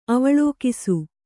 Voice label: "ಅವಲೋಕಿಸು" Kannada

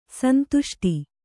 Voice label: "ಸಂದಷ್ಟ", Kannada

